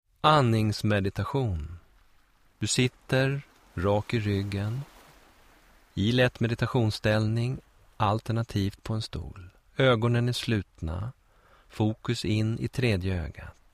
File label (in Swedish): Andningsmeditation